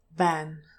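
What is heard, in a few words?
"ban", English, TRAP /æ/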